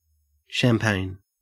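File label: "champagne", Australian English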